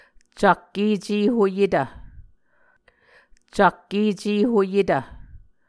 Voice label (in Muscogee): "cak ecehoyetv", Muscogee; Mvskoke Words with Voicing Consonants; vcak-ecēhoyetv; Martin, Jack B., and Margaret McKane Mauldin. 2023. A Dictionary of Creek/Muskogee; SIL International